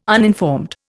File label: "uninformed", Indian